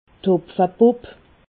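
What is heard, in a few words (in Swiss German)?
"hopfebubb", Alsatian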